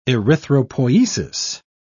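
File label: "erythropoiesis", British English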